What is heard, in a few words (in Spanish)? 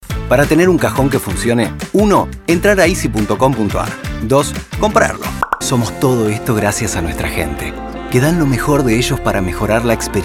rioplatense